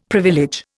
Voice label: "privilege", Indian; Dictionary